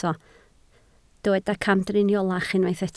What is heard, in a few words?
"camdriniolach", Welsh